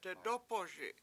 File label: "dedOpoSi7", Washo; burnt to ash